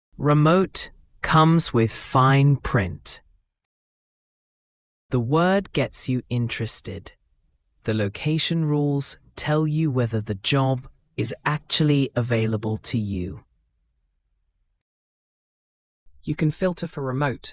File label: voiceover; remote comes with fine print; chapters